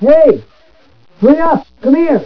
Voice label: pilot muffle; svencoop; hunger